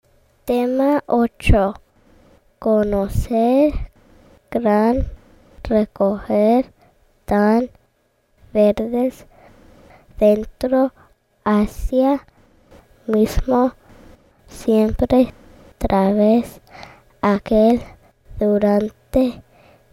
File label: High Frequency Spanish